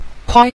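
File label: "kuai", Mandarin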